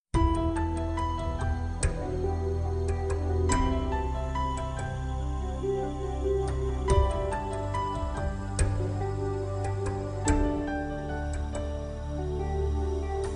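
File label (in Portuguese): pesames fem, Telemensagens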